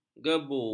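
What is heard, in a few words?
"gebu", Malay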